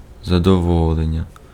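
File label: Ukrainian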